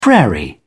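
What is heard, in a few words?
"prairie", English, British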